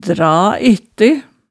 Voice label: "dra ette", hemsedalsmaalet tale

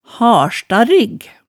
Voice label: "halstarrig", hemsedalsmaalet

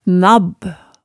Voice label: "nabb", Hemsedal

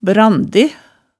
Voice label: "brande", hemsedalsmaalet tale

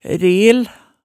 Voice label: "reel", Hemsedal

